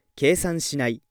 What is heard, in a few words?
male